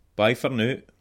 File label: goodbye, scots